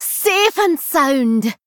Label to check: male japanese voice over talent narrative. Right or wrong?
Wrong